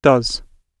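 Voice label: "does", British English; Oxford Audio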